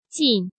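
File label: "Jìn", Chinese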